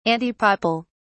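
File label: "antipapal", British English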